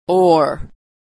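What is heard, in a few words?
"ore", American English; vowel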